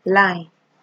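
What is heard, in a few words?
"line", Nigerian Pidgin, female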